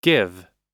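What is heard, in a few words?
"give", US English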